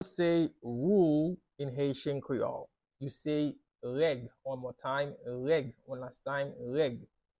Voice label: Rule in Haitian Creole Reg pronunciation by a Haitian teacher